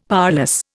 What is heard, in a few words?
"powerless", Indian; Dictionary